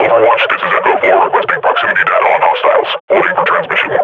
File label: idle, grunt